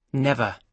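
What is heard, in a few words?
"never", British English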